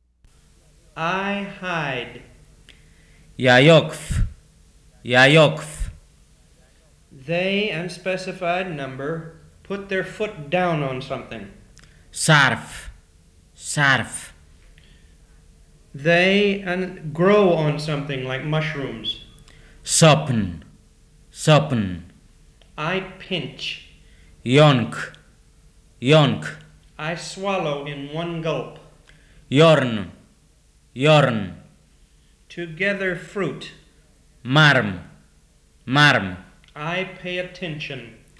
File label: BIAK